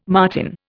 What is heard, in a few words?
"martin", Indian